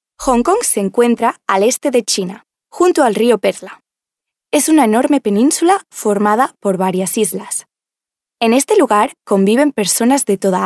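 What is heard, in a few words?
Spanish female corporate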